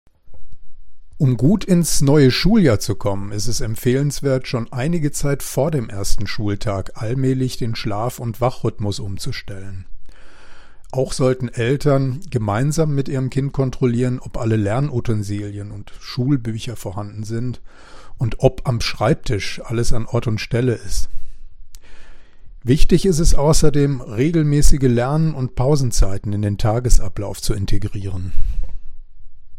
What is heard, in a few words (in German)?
O-Ton Schlafrhythmus; studienkreis; fit ins neue schuljahr